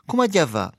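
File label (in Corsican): comu aghju da fà, Rocca – sud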